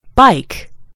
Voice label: "bike", en GB; gcompris

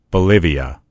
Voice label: "bolivia", en_US; gcompris; geography

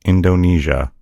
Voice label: "indonesia", en_US; gcompris; geography

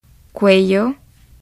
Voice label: neck, es; gcompris

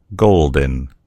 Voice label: "golden", en US; gcompris

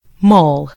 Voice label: "mole", en US; gcompris

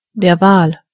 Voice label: whale, de; gcompris

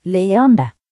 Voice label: laugh; gcompris-data

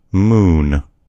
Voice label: "moon", en US; gcompris

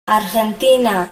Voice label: "argentina", en; gcompris-data; geography

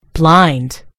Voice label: "blind", en GB; gcompris

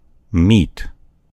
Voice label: "meat", en US; gcompris

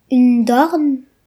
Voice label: "fist", br; gcompris

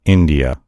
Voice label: "india", en_US; gcompris; geography

